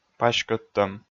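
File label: "паськӧдтӧм", Komi, male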